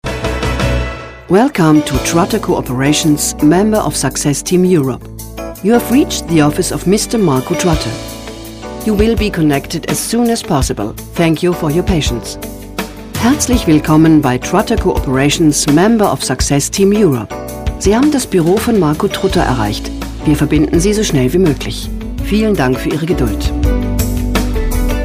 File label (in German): Ansage bei Besetzt